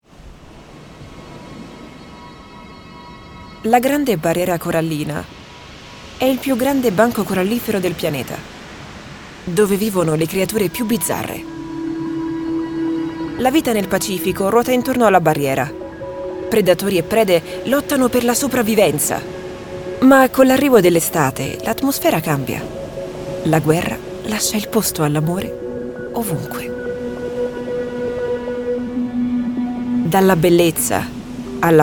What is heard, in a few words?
female Italian documentary